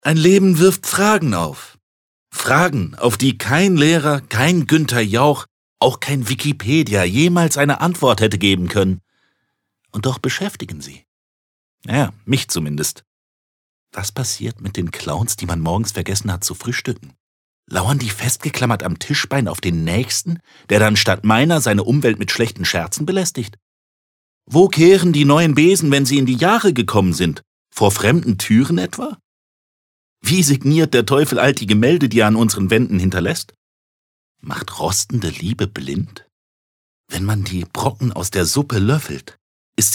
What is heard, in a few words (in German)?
Schauspiel Witzig